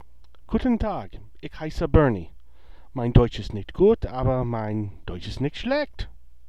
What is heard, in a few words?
German Sample